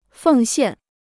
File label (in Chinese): "奉献", Chinese